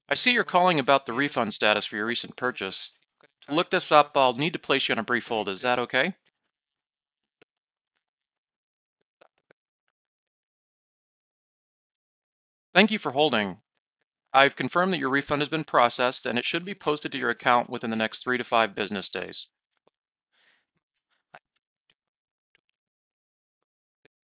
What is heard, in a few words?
Background speech Krisp